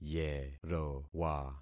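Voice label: "yerowa", concatenated syllables; Affixing experiment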